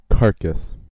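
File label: "carcass" English, American accent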